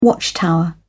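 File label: "watchtower", British English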